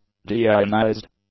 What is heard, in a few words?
"de-ionized", english; adjectives